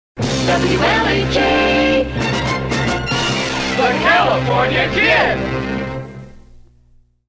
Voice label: Jock Shout